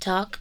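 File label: talk, female vocals, right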